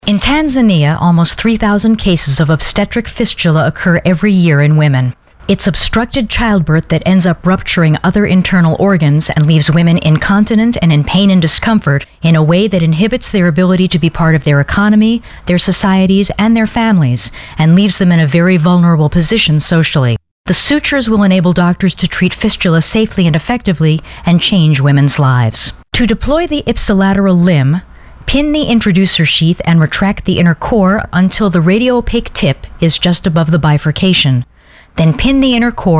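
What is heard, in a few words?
Medical, voiceovers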